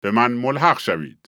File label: farsi King; age2